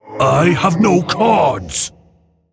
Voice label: Male Mogu No Cards